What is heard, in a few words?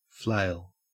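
"flail", Australian English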